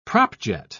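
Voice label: "propjet", British English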